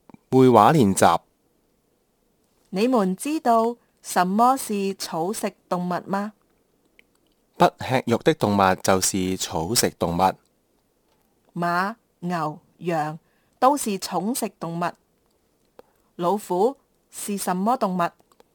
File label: conversation, Kantonese